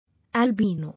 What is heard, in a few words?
"Albino", English